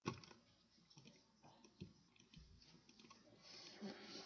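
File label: "pencil", spoken word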